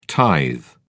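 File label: "tithe", British English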